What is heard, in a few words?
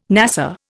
"NASA", Indian